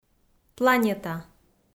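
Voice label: "планета", Komi, female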